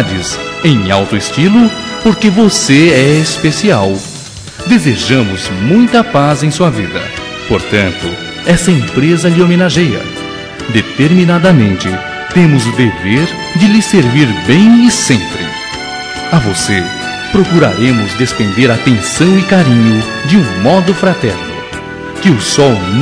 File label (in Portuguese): MENSAGEM VOCE NOSSO CLIENTE MASC, Telemensagens; Telemensagens 2017